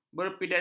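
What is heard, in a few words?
"berpidato", Malay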